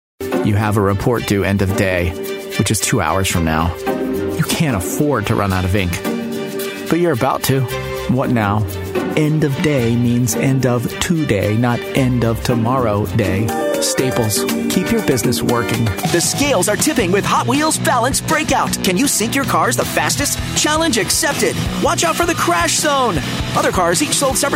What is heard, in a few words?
american male commercial